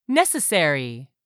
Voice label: "necessary", English; 3000 words